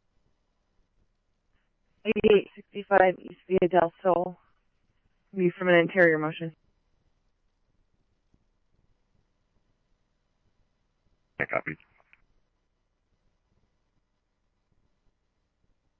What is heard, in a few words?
Scottsdale Police, scanner; RWC; H-Scottsdale